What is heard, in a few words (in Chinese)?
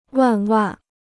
"万万", Chinese